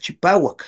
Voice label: "chipauak", Náhuatl; limpio; clean